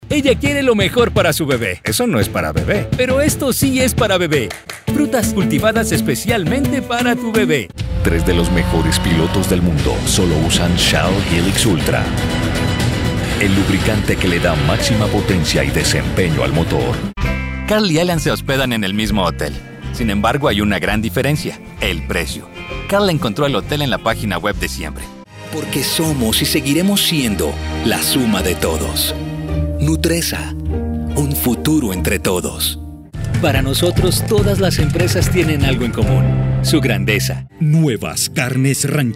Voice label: Spanish Colombian commercial; Spanisch (Lateinamerika) Spanisch (Kolumbien)